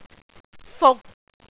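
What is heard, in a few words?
"fuk6", Cantonese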